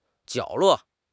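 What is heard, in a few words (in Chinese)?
"角落", Chinese; HSK6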